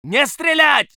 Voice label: voice sov infantry

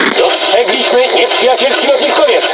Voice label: Speech Detection Enemy Aircarrier, PL; World Of Warships

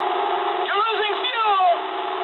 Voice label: eng tank damaged mood high, speech eng; War Thunder

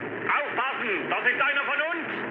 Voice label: player friendly fire, mood med, speech ge

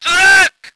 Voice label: voice ger vehicle

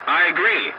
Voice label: voice message yes, english; War Thunder

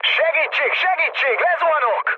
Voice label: aircraft destroyed, speech hun; War Thunder

